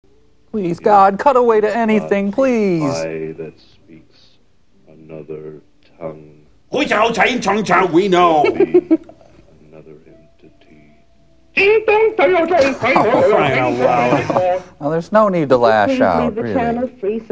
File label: chinese